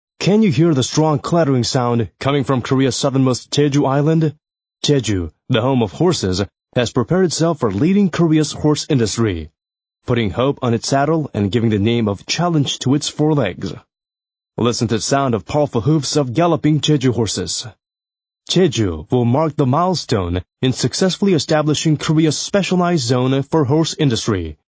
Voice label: American English, M